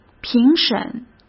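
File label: "评审", Mandarin Chinese; chinese flashcards; hsk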